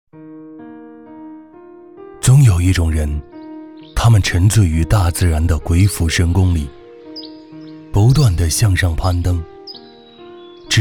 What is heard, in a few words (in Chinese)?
温情讲述